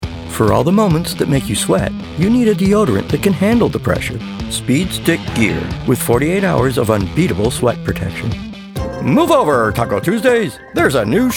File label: Commercial